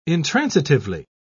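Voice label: "intransitively", English, uk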